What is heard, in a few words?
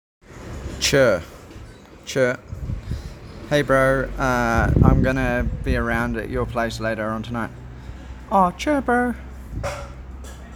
"Chur", New Zealand; common New Zealand slang words and phrases